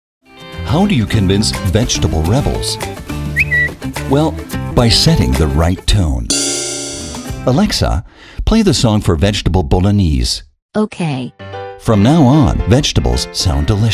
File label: imagefilm eng; maggi